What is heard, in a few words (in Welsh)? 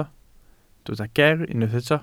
"ger" Welsh